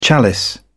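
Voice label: "chalice", British English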